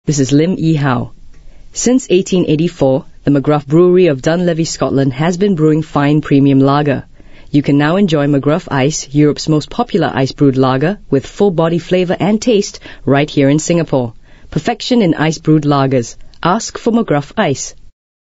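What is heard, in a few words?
English Asian English Female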